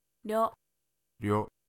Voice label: "ryo", Japanese